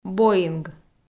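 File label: "боинг", Komi, female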